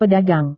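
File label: "pedagang", Indonesian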